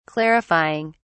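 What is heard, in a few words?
"clarifying", British English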